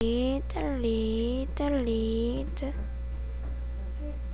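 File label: "lead", spoken word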